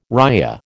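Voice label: "ria", American English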